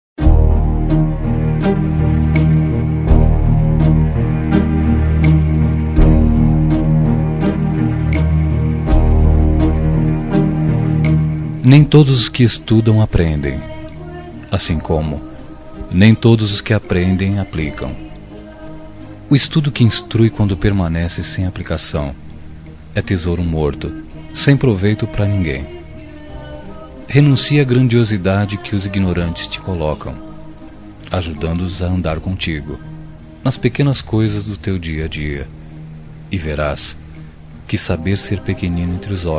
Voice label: MENSAGEM NEUTRA MASC, Telemensagens; Telemensagens 2017

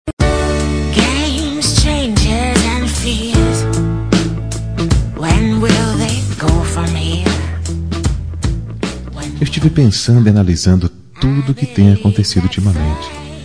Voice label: ROM SE LIGA ALGUEM TE PAQUERA MASC

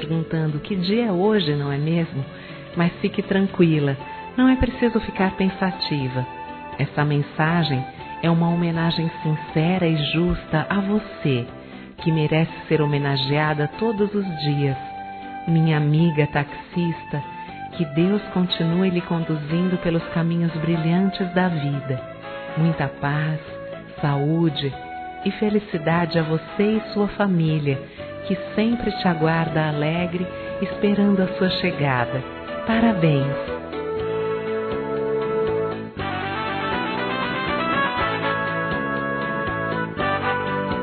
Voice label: ao motorista de táxi F, telemensagens homenagens; fem

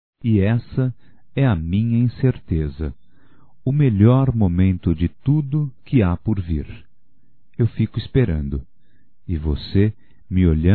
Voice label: ROMÂNTICA, Telemensagens, masc

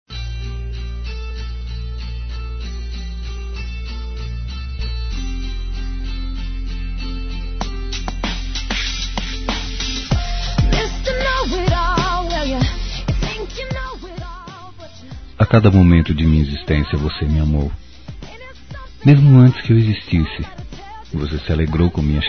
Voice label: Dia das Mães Neutro Masculino; Telemensagens 2017

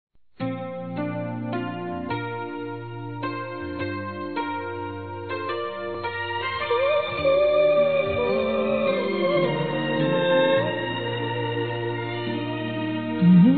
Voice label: NEUTRO, masc

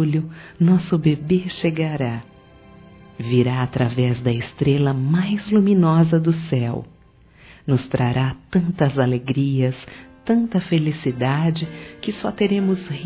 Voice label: PARAB. PELA GRAVIDEZ DA FILHA, fem

